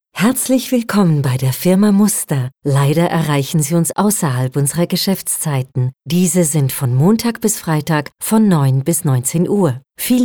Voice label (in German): Telefonansage neutral Hochdeutsch